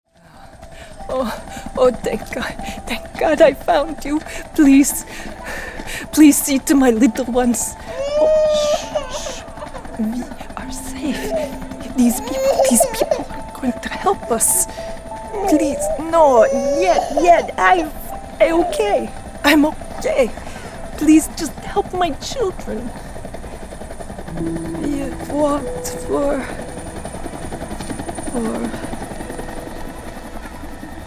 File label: Eastern European Accent Emotional Refugee; Esma